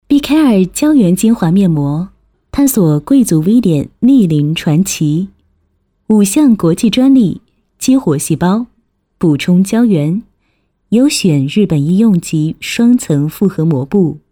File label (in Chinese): 女 课件 护肤品说明